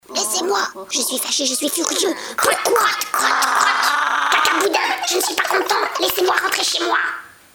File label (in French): voix d'un trevilain